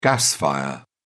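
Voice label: "gas fire", British English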